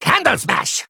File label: Male Kobold Attack